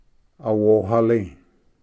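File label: "awohali", Cherokee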